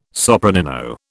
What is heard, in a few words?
"sopranino", British